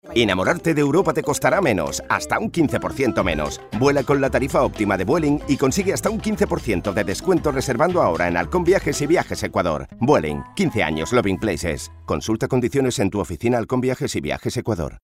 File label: male spanish airline comercial; ideasonora